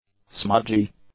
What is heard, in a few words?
"smudgy", english; adjectives